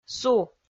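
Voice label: "су", Komi, female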